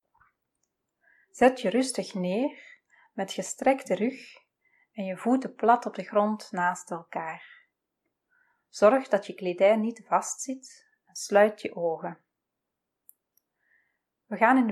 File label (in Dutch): progressieve relaxatie lange training